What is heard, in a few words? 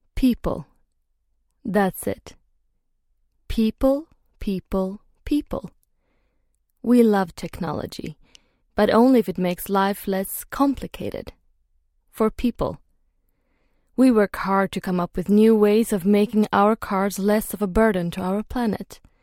eng scand f